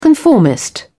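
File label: "conformist", British English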